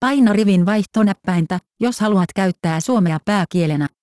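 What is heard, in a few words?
Voice Over Instructions Finnish; Language Chooser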